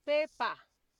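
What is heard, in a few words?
"paper", Nigerian Pidgin, female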